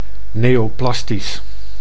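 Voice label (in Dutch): "neoplastisch", Dutch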